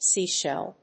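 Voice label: "seashell", English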